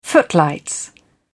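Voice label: "footlights", British English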